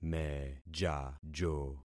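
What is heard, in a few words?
"mejajo", concatenated syllables; Affixing experiment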